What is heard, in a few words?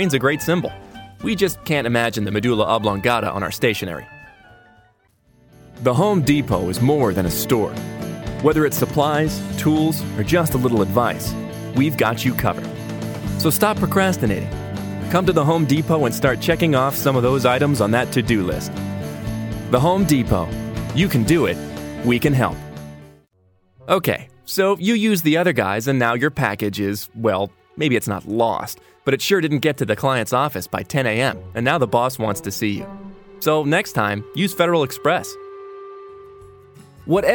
Voice Over Artist; Washington DC